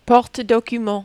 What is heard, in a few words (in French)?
"porte documents", French